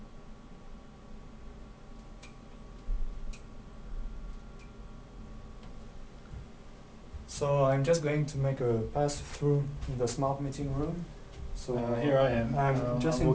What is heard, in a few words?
array2 mic6; av16.3